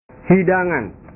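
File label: "hidangan", Indonesian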